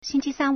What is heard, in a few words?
"xing1qi1san1", Chinese